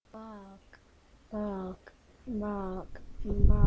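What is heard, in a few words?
"bog", spoken word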